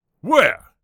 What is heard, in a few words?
knight vocal where